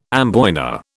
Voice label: "amboyna", British